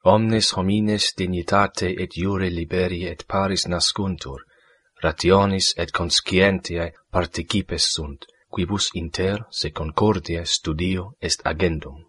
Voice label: udhr latin classical